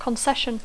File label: "concession", English